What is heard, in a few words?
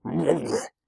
Amanita Angry; oxeyewiki